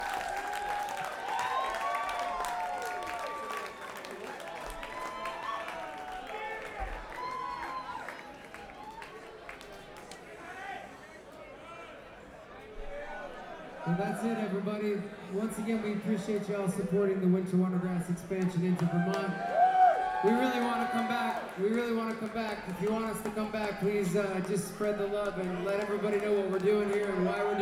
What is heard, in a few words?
encore break announcement; Bluegrass